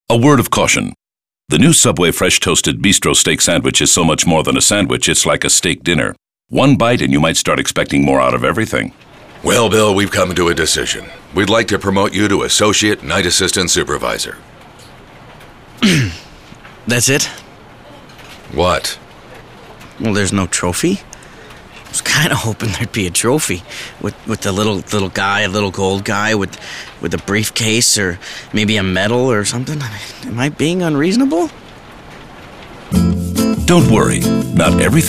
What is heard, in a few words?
Disgruntled Employee